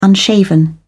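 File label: "unshaven", British English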